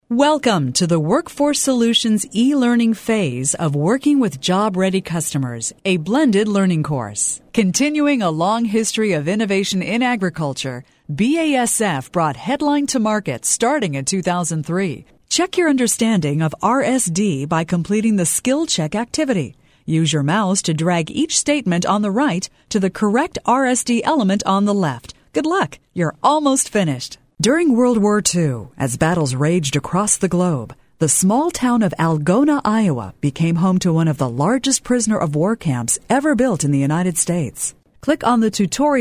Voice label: Narrative